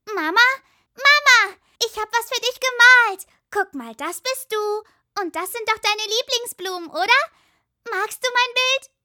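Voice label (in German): fröhliches Kind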